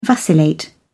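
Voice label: "vacillate", British English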